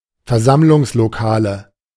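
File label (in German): "Versammlungslokale", German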